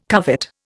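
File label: "covet", Indian English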